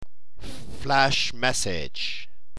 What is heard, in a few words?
flash, tongue twisters; Flash message!